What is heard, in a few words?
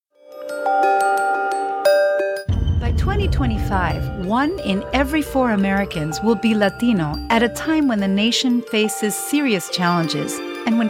Latino Growth in the U.S. Voiceover